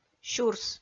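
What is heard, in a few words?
"сюрс", Komi, female; kpv audio dict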